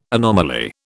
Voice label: "anomaly", British